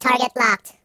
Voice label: "Watch this", robot voice; hedgewars